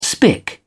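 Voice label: "spik", British English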